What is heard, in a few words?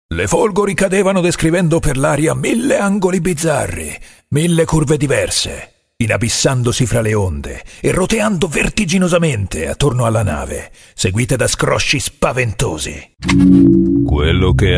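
Italian characters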